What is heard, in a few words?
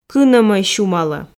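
"кыномой сюмало", Komi, female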